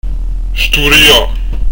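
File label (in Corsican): "studia", Corsican; dizco grammatica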